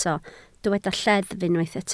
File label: "lleddf", Welsh